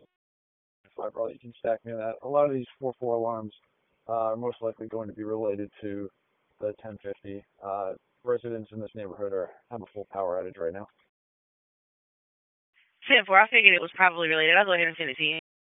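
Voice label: Police District Dispatch, p25; Raleigh Police North District Disp; wake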